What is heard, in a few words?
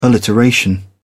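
"alliteration", British English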